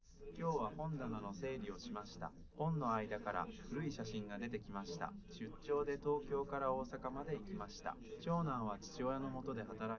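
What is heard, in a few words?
male3 live recording 130ms 5cm, underdetermined; SiSEC11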